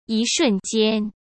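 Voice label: "一瞬间", Mandarin Chinese; chinese flashcards; hsk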